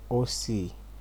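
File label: "OC", Nigerian Pidgin, male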